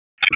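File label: Dispatch 1, P25; WSO DISP 1; wake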